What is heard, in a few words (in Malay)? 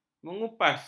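"mengupas", Malay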